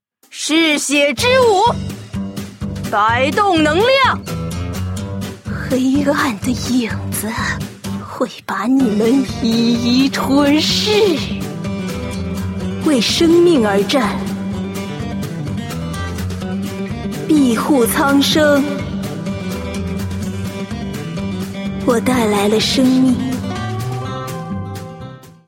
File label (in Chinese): nv qita